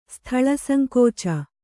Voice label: "ಸ್ಥಳಸಂಕೋಚ", Kannada; sthaḷa sankōca; noun; non-availability of sufficient room.